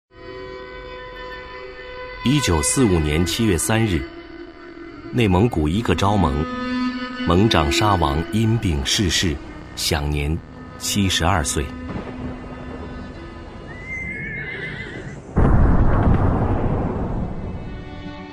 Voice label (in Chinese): 男 纪录片 客观历史讲解; 磁性稳重 舒缓抒情; 成吉思汗